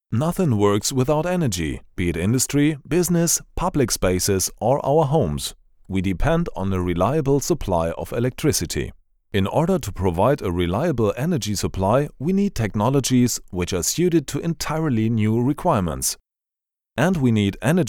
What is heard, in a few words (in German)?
englisches demo